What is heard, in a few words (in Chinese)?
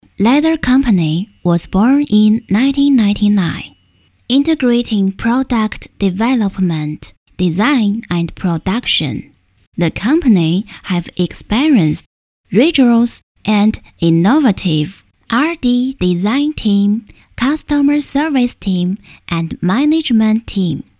专题英文